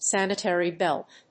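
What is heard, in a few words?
"sanitary belt", English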